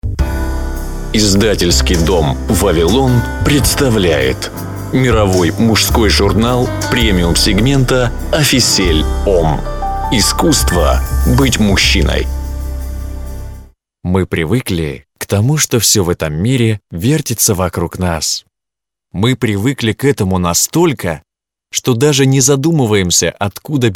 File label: rus